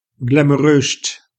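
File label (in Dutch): "glamoureust", Dutch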